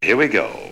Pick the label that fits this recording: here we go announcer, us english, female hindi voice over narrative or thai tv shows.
here we go announcer